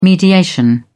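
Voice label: "mediation", English, British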